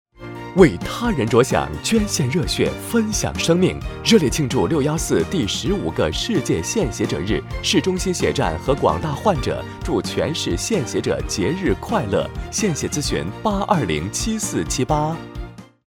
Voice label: 男 中文 公益类; 献血者日

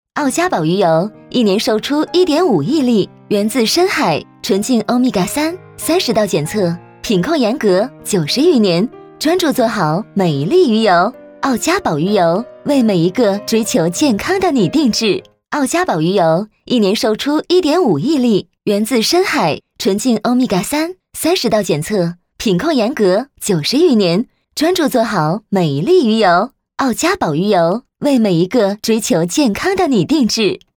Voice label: 女 广告; 澳佳宝鱼油

